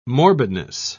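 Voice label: "morbidness", British English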